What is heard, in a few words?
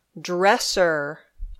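"dresser", English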